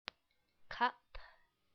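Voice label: "cup", English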